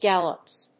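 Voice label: "gallops", English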